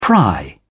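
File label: "pry", British English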